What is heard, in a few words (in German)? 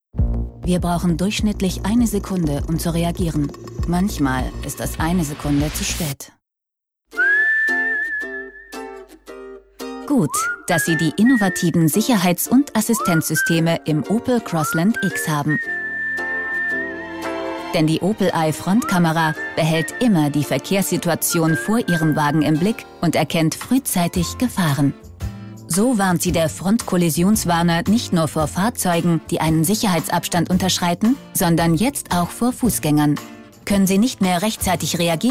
Produktfilm; Opel Crossland X